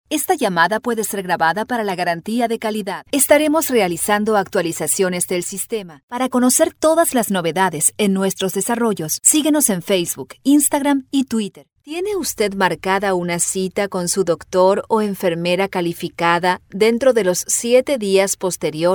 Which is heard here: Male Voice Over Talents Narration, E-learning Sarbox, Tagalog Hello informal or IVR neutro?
IVR neutro